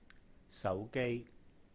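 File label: "手機", Cantonese; cell phone; sáu-gēi; sau2 gei1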